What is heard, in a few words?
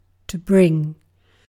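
"bring", British English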